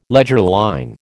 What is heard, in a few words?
"leger line", American